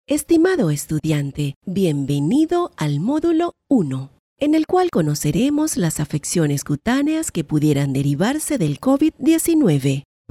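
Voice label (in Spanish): e-learning, Venezuela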